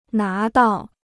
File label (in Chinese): "拿到", Chinese